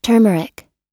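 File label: "turmeric", US English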